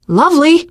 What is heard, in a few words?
nice job, teengirl; survivor voice